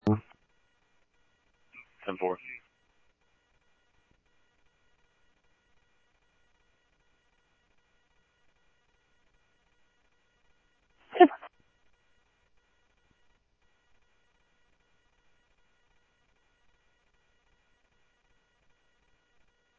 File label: Scottsdale Police, scanner audio; H-Scottsdale